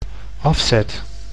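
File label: "afzet", Dutch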